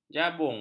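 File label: "jabung", Malay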